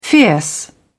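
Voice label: "fierce", English, British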